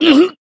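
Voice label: Voice damaged Japanese; cyrus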